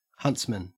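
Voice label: "huntsman", Australian English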